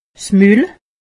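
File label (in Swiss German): "mul", Haut Rhin; medecine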